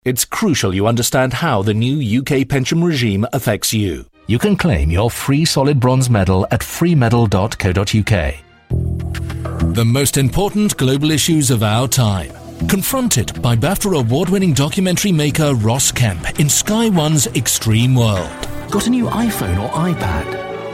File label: English UK General